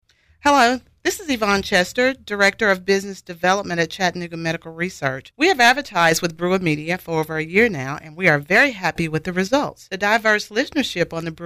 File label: TESTIMONIAL; CHATTANOOGA MEDICAL RESEARCH